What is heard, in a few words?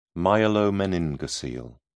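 "myelomeningocele", British English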